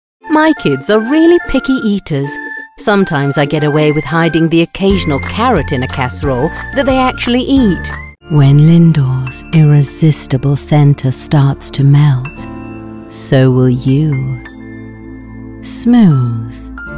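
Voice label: British English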